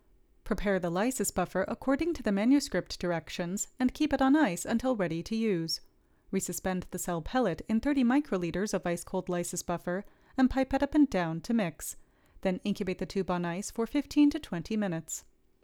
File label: Voiceover